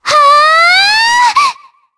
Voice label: Casting, jp; Shea; kings raid

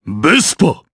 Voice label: Vox jp; Bernheim; Vespa; kingsraid

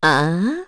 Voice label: Vox, kr; FreyB; kingsraid

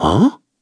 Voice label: Human Sur, kr, voices; heroes; Shakmeh; kings raid

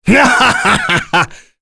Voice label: Vox Skill, en; Kain; kingsraid; voices heroes en

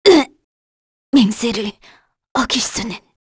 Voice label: Vox Dead, kr; heroes; Aselica; kingsraid

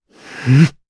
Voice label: Vox Casting jp; Pavel; kings raid

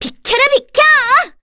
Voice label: Vox Skill, kr; Cecilia; kingsraid

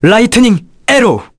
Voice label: Vox Skill, kr; Arch; kingsraid

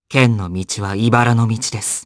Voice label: vox dia jp; Fluss; kingsraid

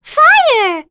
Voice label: Vox Skill, en; Annette; kings raid; voices heroes en

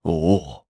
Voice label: Vox Happy, jp; Lusikiel; kingsraid

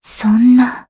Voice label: Vox, jp; Xerah; kingsraid

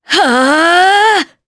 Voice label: Casting, jp; Naila; kingsraid

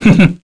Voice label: Vox, kr; heroes; Fluss; kingsraid

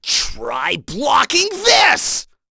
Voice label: Vox Skill, en; kingsraid

